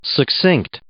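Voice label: "succinct", English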